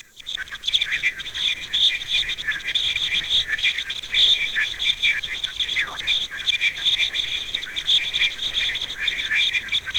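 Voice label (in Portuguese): ressonância grave e anômala, distorted voices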